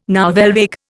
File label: "nouvelle vague", Indian